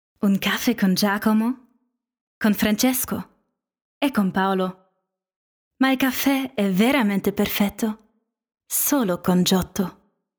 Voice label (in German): Werbung Italienisch; Giotto